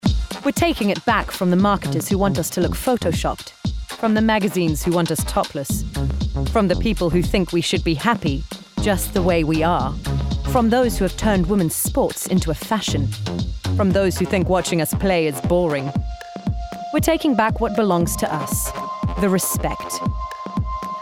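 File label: COMMERCIAL; Adidas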